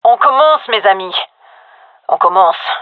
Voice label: round start, gendarmerie fem; vo